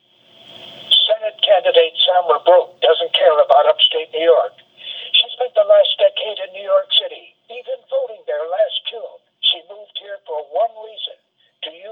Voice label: Robocall; attacking Samra Brouk; carpetbagger